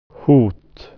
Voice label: "threat", swedish